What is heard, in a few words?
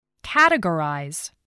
"categorize", spelling